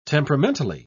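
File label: "temperamentally", British English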